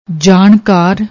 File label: "jaankaar", Punjabi